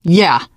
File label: hurrah, teen girl, survivor voice; source engine; left 4 dead 2